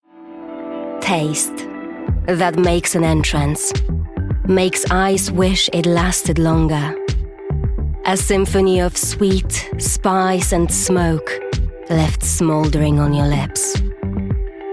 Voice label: english

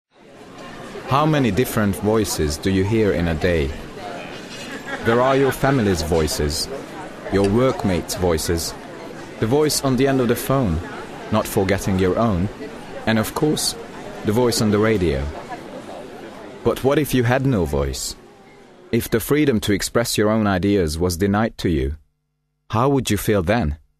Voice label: Hungarian English, Male, 20s-40s; Eastern European

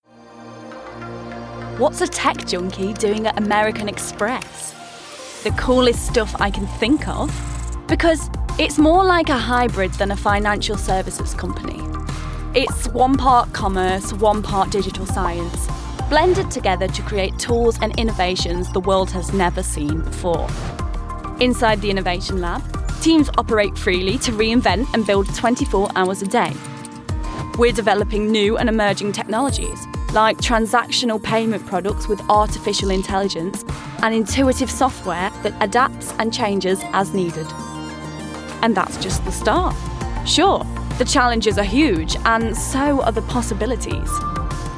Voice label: Corporate

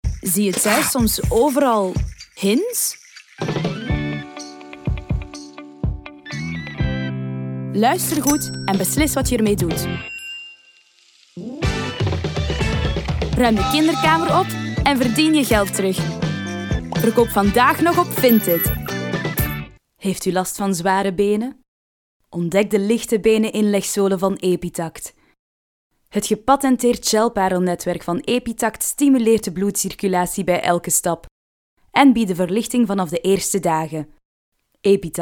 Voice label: Flemish

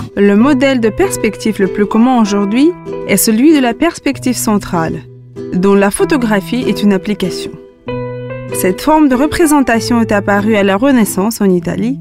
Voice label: French

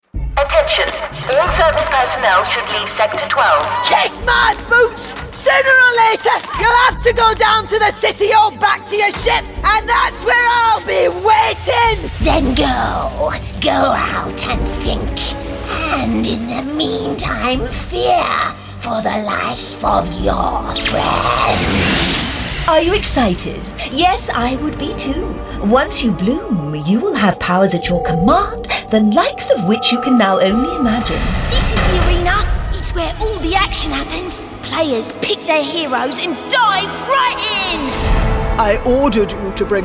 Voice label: Animation

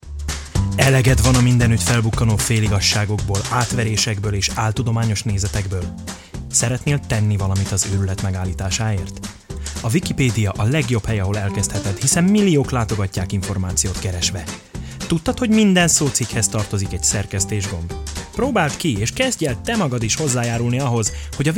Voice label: Hungarian

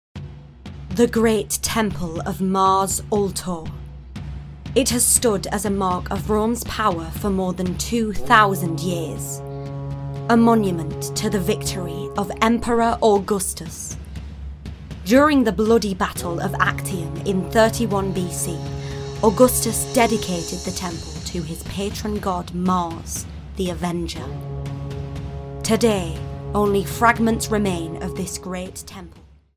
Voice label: Documentary

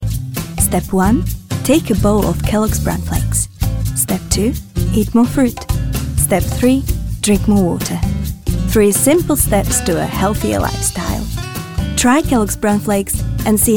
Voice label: English

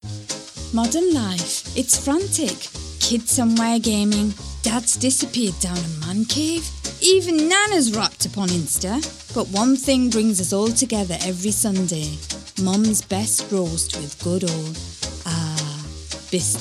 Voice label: Commercials

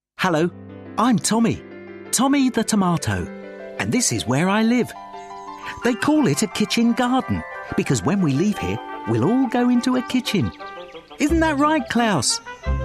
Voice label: Animation

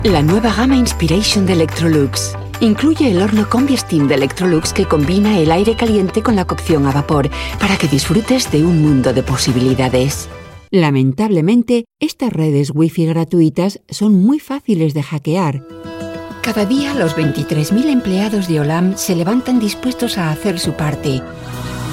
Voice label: Spanish

